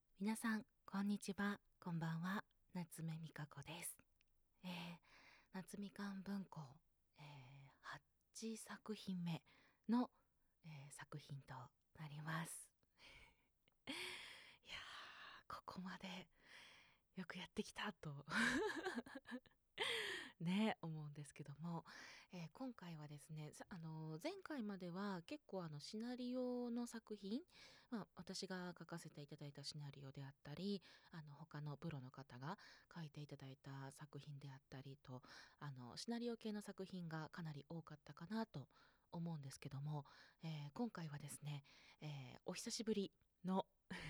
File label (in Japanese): 自己紹介 コスプレ開封